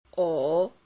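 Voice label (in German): Phoneme, chinesisch; Silben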